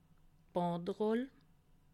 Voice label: "banderoll", alsace